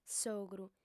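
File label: "s'ogru", lingua sarda, female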